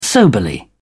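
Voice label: "soberly", British English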